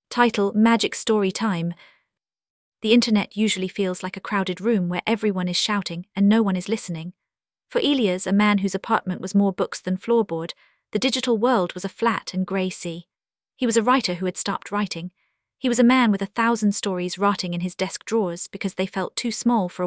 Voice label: english; micro-tales